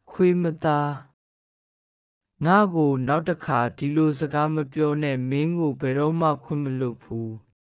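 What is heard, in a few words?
Myanmar, burmese; ミャンマー語; 口語表現; 悪口; frank burmese; badmouthing others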